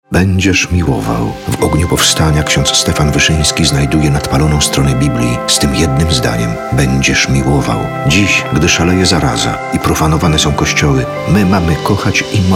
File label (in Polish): spot radiowy; Rozaniec Do Granic Nieba